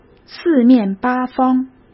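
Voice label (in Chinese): "四面八方", Mandarin Chinese; hsk; chinese flashcards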